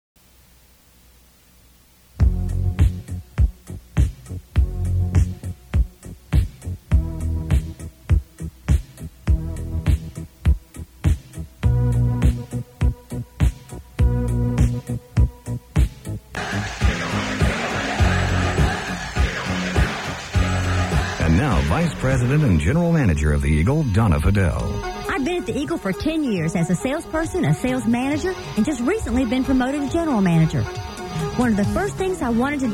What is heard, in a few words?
Aircheck; KEGL All Hit 971